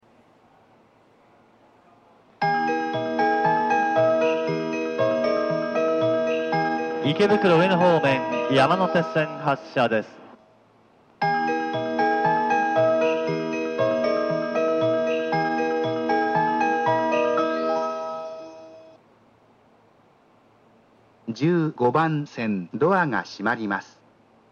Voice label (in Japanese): 発車放送, 新宿駅; 山手線外回り(池袋・上野方面); 15番線